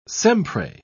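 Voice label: "sempre", English, British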